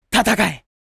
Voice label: "Fight" anime boy character, japanese voice over

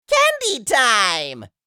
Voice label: Candy time jolly elf; Christmas

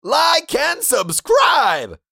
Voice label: Like and subscribe social media male; shorts sound effects

